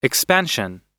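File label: "expansion", US English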